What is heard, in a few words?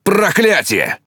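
Russian Speech Quick Commands Caramba; World Of Warships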